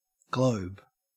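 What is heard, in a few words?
"globe", Australian English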